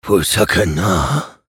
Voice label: vo chatter negative; respawn; eisen